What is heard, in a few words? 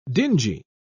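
"dingy", English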